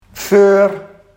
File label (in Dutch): "veur", Barghs